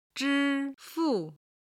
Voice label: "zhi1 fu4", Chinese